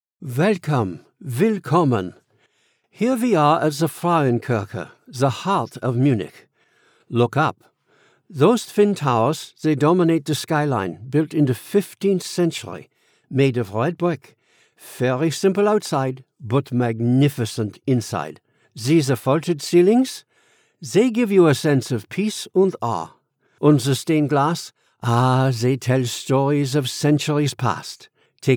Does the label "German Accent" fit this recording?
Yes